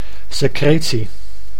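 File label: "secretie", Dutch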